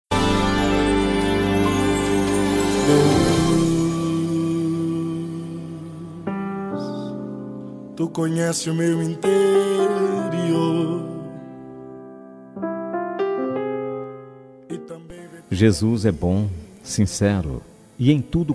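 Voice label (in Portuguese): aniversario irma evangelica masculino, telemensagem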